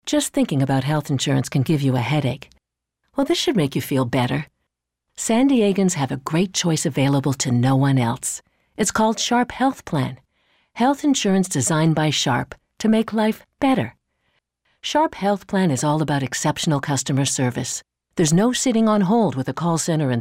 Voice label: Radio